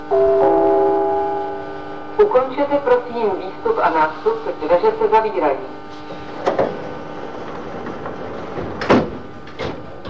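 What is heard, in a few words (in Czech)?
příští, Vlaková hlášení, Pražské metro; Ukončete, prosím, výstup a nástup, dveře se zavírají. Příští stanice Malostranská.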